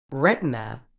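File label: "retina", medical English, British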